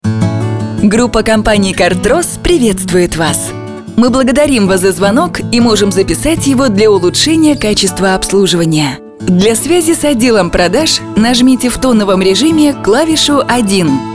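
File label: female voice for answering machine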